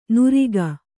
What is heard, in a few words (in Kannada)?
"ನುರಿಗ", kannada